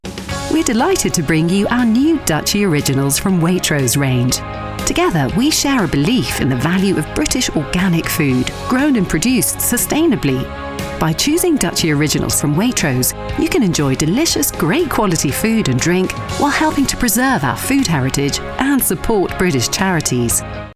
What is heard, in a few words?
british english female; DUCHY ORIGINALS